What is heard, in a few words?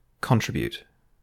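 "contribute", British English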